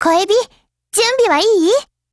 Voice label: LOADING JP